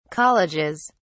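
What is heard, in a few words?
"colleges", British English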